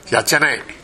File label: "yachanae", minamiyamagata